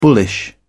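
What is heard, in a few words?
"bullish", British English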